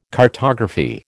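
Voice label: "cartography", American English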